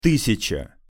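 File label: "tysjaca", Russian pronunciation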